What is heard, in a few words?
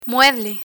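"mueble", Spanish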